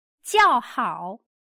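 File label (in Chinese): "叫好" Mandarin Chinese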